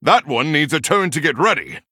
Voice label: Male Human Error Summon Sickness